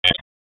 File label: Police Tac, p25; Raleigh; Southwest District; wake